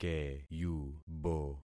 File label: "keyubo", concat syllables; Affixing experiment